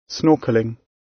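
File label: "snorkelling", British English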